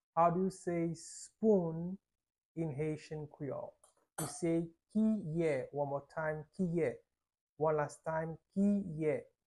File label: "Kiye" Haitian Creole pronunciation by a native Haitian Creole teacher; How to say Spoon in Haitian Creole